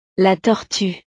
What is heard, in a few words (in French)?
"la tortue", frances